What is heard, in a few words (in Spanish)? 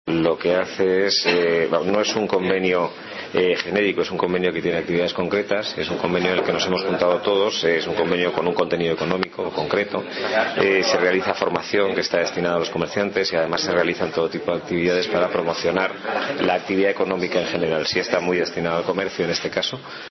noticias